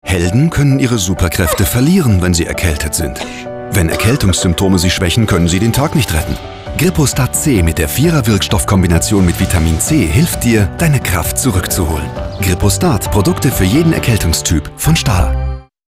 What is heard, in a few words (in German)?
Werbung; grippostad helden